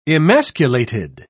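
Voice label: "emasculated", British English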